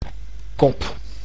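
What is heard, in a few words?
"kop", Dutch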